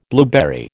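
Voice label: "blueberry", American English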